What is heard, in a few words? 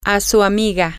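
your friend, Spanish, female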